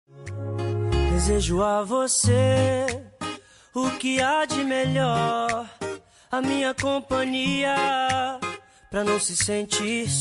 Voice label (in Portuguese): amiga masc, voz masculina; dia das maes para amiga